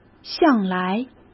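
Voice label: "向来", Mandarin Chinese; chinese flashcards; hsk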